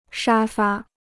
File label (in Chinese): "沙发", Chinese